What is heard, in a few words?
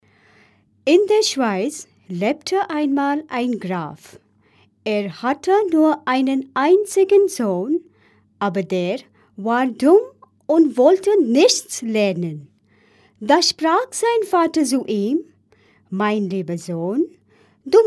German Female